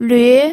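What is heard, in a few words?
"lüe", second tone, pinyin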